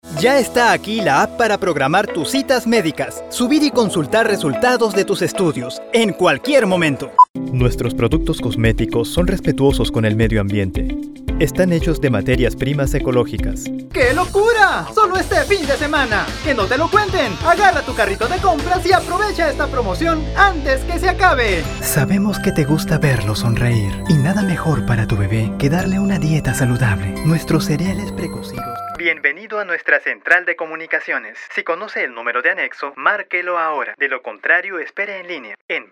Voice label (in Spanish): COMERCIAL